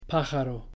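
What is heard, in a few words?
"pájaro", Spanish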